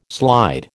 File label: "slide", American